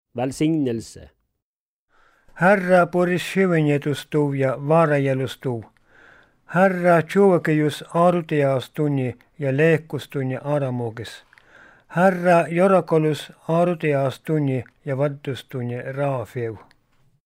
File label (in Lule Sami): buorissjivnnjadus velsignelse lulesamisk